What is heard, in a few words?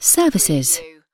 UK female "services"; lynne publishing